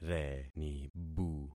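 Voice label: "renibu", concatenated syllables; Affixing experiment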